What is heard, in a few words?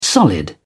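"solid", English, British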